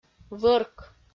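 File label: "вӧрк", Komi, female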